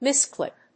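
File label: "misclick", English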